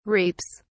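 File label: "reaps", British English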